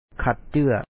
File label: "ຄັດເຈື້ອ", Lao; ta1t ci2a cut paper